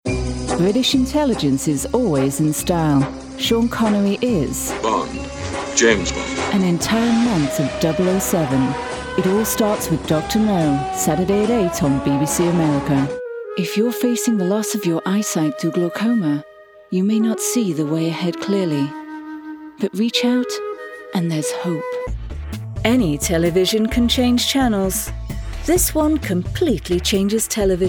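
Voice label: Commercial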